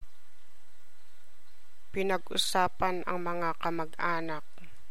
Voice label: tagalog